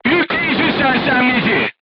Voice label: voice message charger contused, crew tanks turkish